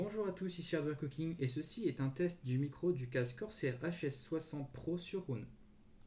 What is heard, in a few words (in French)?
test micro, Corsair HS60 Pro Surround